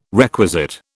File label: "requisite", British